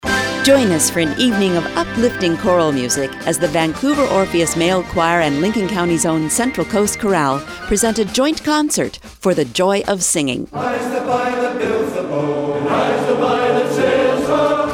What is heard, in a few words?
Radio Ad